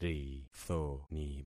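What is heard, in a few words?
"rithoni", concatenated syllables; Affixing experiment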